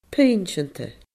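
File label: "puinnseanta", Scottish Gaelic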